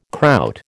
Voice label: "Kraut", American